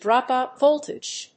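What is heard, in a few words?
"dropout voltage", English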